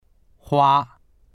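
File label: "hua1", Mandarin Chinese; 花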